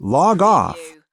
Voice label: US male log-off; lynne publishing